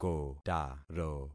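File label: "kotaro", concatenated syllables; Affixing experiment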